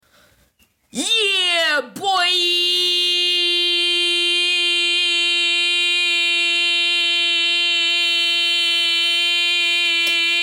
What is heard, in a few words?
Yeeeaaah boiiiii